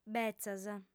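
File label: "betzas", female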